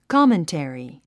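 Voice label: "commentary", English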